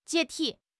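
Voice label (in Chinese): "解体", Chinese; HSK6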